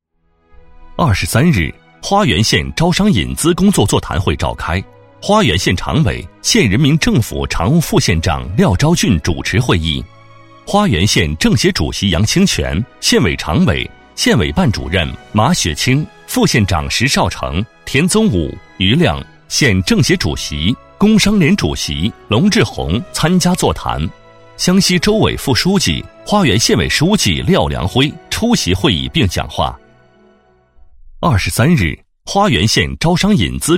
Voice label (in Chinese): 男 新闻; 花园县